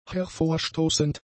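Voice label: "hervorstoßend", German; adjectives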